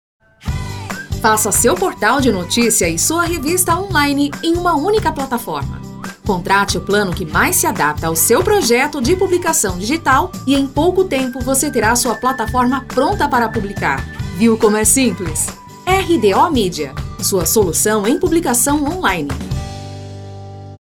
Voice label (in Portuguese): spot simples; RDO MEDIA